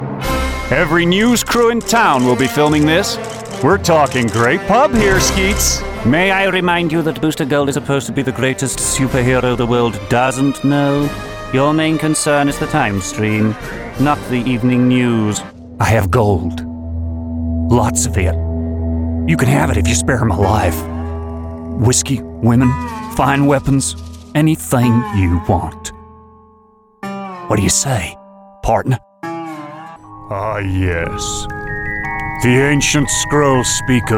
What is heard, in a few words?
Animation